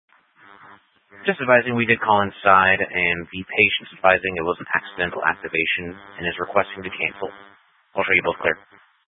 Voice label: Fire Department Dispatch; Grand Junction